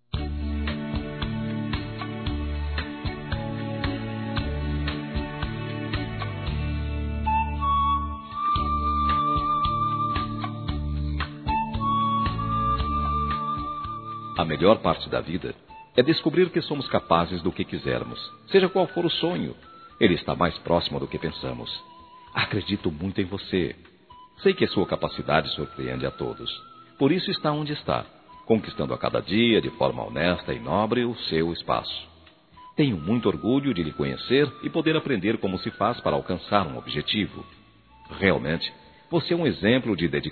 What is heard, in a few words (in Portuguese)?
Desejando Sucesso Masculino; Telemensagens; Telemensagens 2017